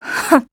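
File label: low mood, Japanese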